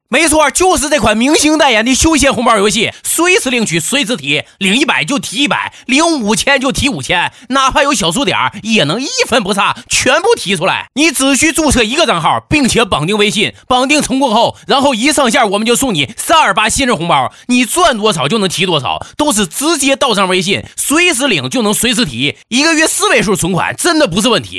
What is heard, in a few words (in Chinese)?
东北